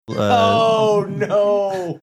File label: drawn out oh no